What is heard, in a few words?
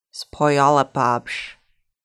Puyallup, Lushootseed